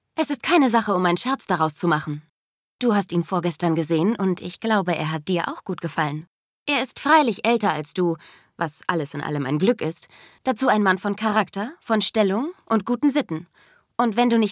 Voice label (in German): hoerbuch; effi briest